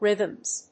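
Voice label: "rhythms", English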